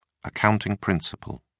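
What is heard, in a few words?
"accounting principle", British English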